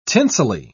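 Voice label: "tinselly", British English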